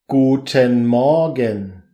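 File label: guten morgen, German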